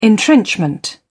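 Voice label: "entrenchment", English, British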